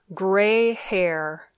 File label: "gray hair", English